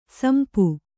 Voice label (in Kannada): "ಸಿಂಪಿ", Kannada